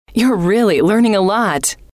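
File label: you are really learning a lot, female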